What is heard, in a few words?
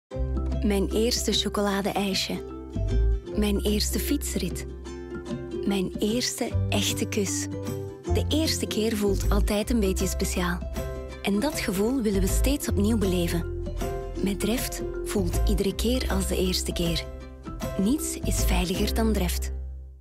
Commercial; Dreft